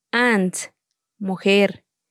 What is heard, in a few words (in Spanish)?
Palabras en tsotsil, Ants - Mujer